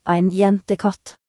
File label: cat female; gcompris-data